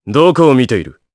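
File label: Vox Skill, voices, Japanese; Riheet; kingsraid audio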